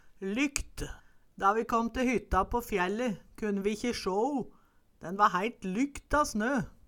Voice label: lykt, Numedalsmål

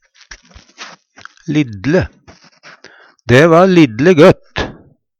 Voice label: liddle, Numedalsmål; veldig, svært; Determinativ (mengdeord)

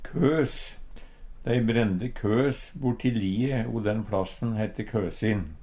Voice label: kos, Numedalsmål; Substantiv inkjekjønn

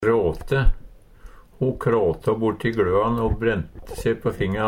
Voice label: krate, Numedalsmål; Verb

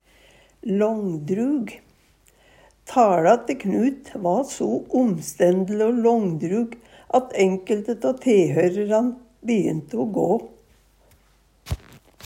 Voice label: "långdrug", Numedalsmål

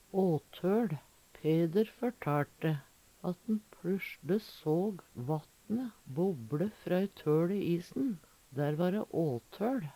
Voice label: "åthøL", Numedalsmål; Peder førtaLte at`n pLussjle såg vatne bobLe opp frå ett ront høL i isen. Dær va dæ åthøL.

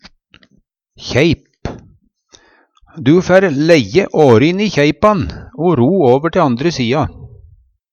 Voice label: kjeip, Numedalsmål

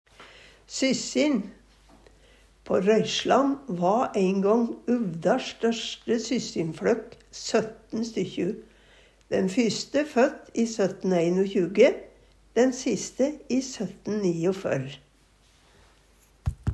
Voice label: syssin, Numedalsmål

